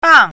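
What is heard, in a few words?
"bang4", Chinese pinyin, fourth tone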